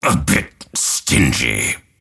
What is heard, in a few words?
lasthit pain; dota; grimstroke